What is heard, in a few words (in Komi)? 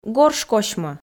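"горш косьмӧ", Komi, female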